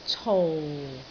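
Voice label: "cou4", Cantonese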